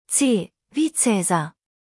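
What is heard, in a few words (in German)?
"caesar", German; buchstabierung alt; C wie Caesar; Buchstabieren Sie bitte!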